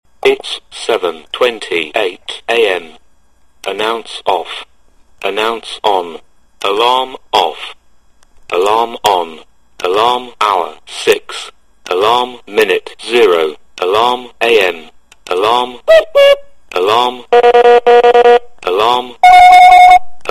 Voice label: talking cube clock

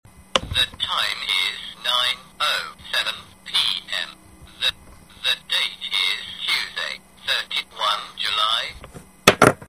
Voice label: talking calendar watch

